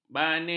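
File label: "banir", Malay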